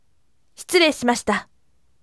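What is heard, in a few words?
voice, firm; shiturei shimashita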